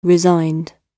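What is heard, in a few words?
"resigned", British English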